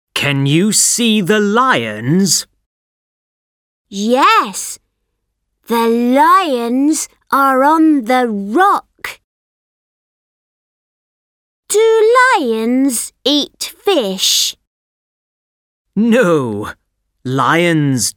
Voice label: At the Zoo British English; BrE; oxford read and imagine